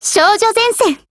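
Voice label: Japanese; EM2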